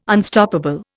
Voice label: "Unstoppable", Indian